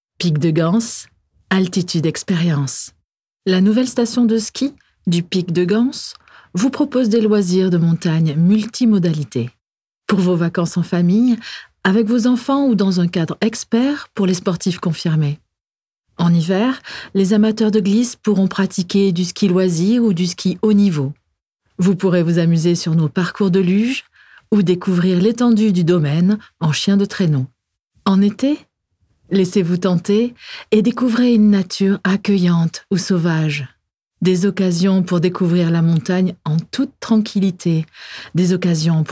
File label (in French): voix off